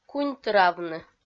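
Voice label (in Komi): "куньтыравны", Komi-Zyrian, female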